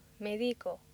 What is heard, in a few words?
"medico", accent; laboratori fonetica; practiques accent